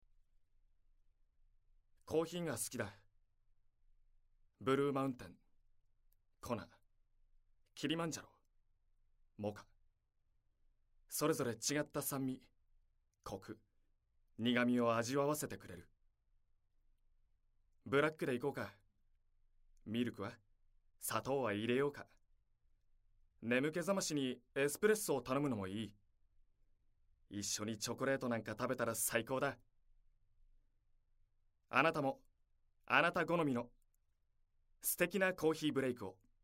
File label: narration